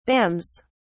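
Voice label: "bams", British English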